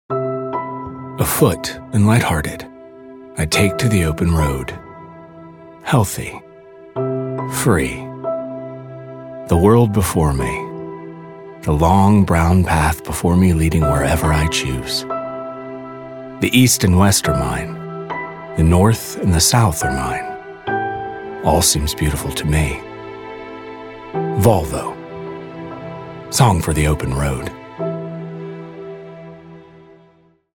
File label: Ad; Volvo; VOLVO COMMERCIAL